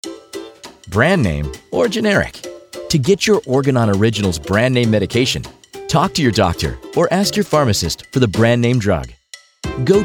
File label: Voice Ad Audio EN; CA